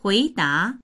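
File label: "hui2da2", Mandarin Chinese; 回答; 回答する、答える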